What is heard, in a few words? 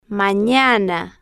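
"manana", Spanish, female, slow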